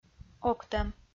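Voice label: "октӧм", Komi, female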